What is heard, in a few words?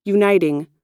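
"uniting", US English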